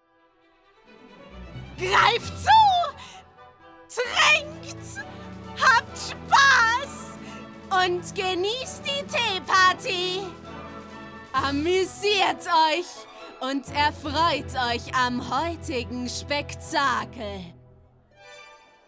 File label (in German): Anime Bedrohlich